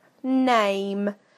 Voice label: "name", UK; PictureDictionary